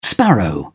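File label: "sparrow", British English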